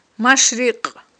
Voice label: "машрикь", Aghul

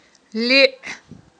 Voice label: "лиI", Aghul

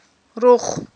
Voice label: "рух", Aghul

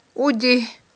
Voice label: "удигь", Aghul

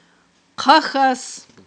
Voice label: "хъахьас", Aghul

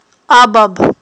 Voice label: "абаб", Aghul

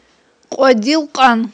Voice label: "кьвадилкьван", Aghul

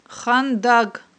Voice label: "хандаг", Aghul

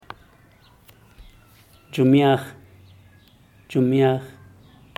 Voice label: "dxumiax", zapoteco teotitlan; canasta

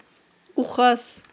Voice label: "ухас", Aghul

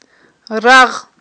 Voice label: "рагъ", Aghul

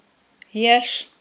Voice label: "яш", Aghul

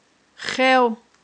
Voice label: "хев", Aghul

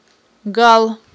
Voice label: "гал", Aghul

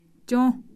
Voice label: cold; kham

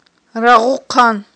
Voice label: "рагъухъан", Aghul

